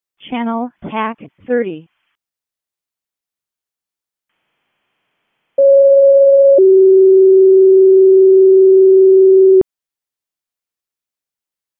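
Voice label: Raleigh Fire Department ALERT, p25; RFD ALERT; wake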